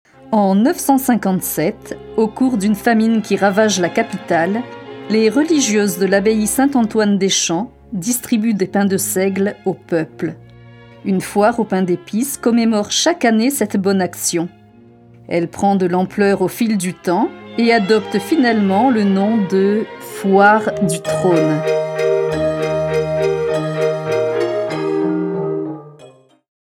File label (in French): documentaire historique